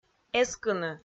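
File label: "эскыны", Komi, female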